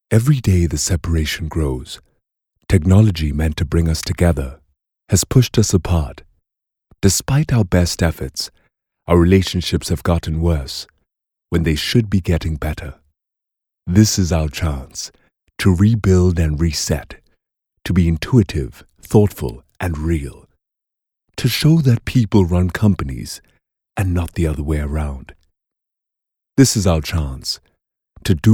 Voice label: English Narrator

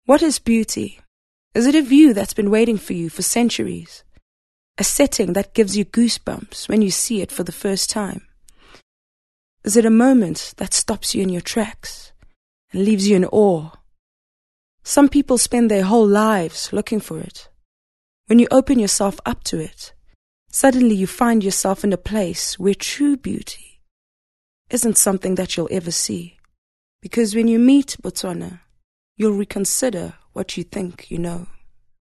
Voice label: English Soft Sell

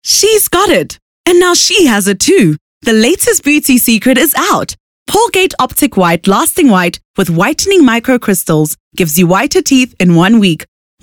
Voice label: English HardSell

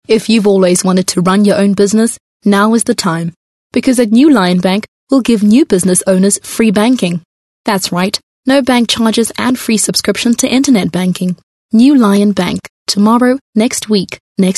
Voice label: English Corporate

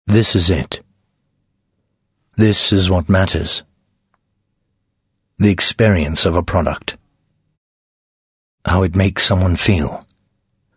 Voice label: English Corporate

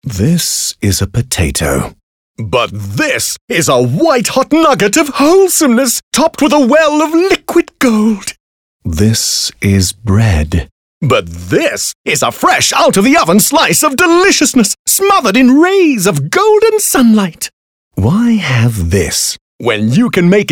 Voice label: English Bright

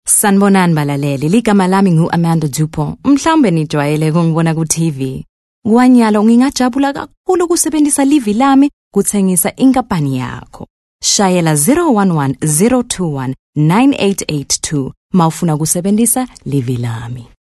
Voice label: SiSwati Conversational

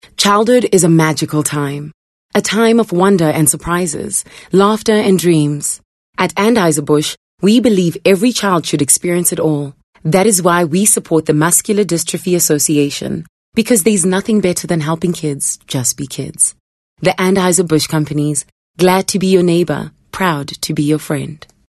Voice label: English Softsell

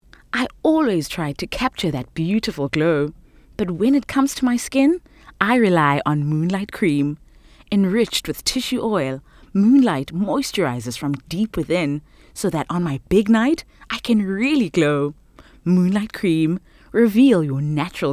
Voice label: English Bright; OSM Talent

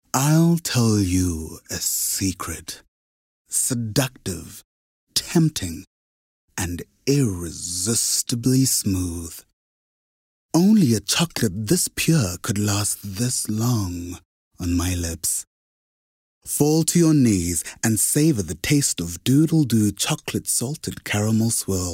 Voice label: English Intimate; OSM Talent

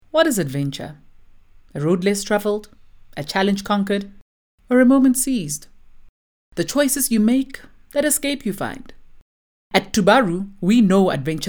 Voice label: English Soft Sell; OSM Talent

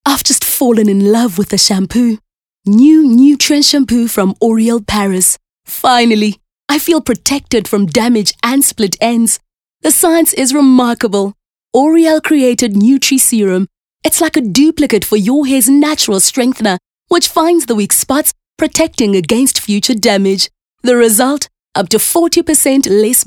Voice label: English bright

